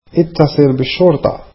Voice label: Call the police, arabic